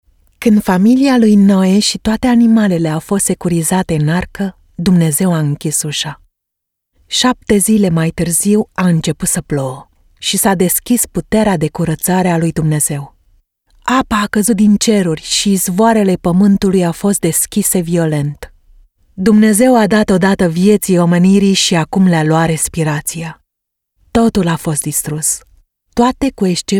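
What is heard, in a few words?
Romanian, Audiobook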